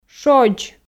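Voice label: "шодз", Komi, female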